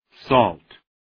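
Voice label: "salt", English word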